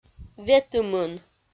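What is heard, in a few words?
"ветымын", Komi-Zyrian, female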